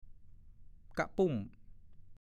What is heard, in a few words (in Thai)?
"กะปุ้ม", ภาษาโคราช; 1. ภาชนะใส่ยาเส้นสานด้วยไม้ไผ่โตกว่ากะป้อย